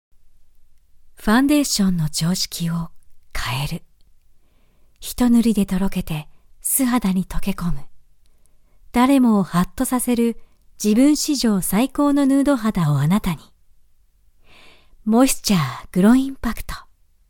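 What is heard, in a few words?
Japanese, Commercial; Golocalise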